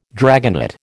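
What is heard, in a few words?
"dragonet", American English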